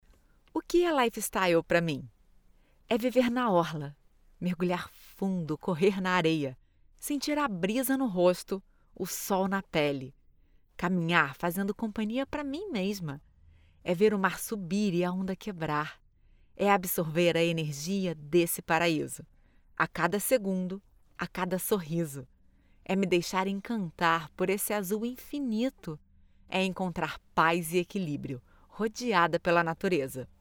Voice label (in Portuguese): FLAT LOCUTORES